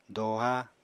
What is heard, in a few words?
"doha", apatani